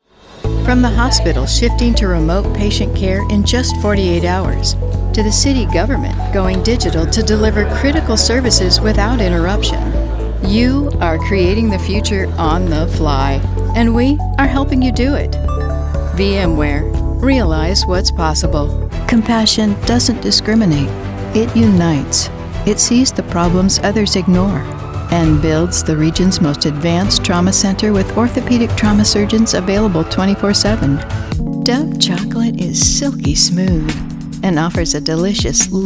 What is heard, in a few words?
Commercial